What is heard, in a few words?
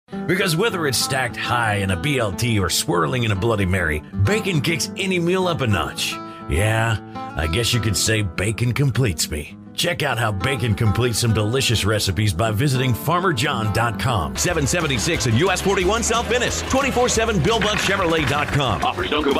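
Commercial Male, Upbeat, Up-tempo, Deep, Baritone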